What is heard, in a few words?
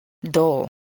"dole", spelling